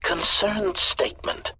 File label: concerned statement